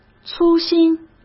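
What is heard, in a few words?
"粗心", Mandarin Chinese; hsk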